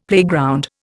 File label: "playground", Indian; Dictionary